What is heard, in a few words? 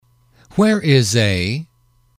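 where is a, male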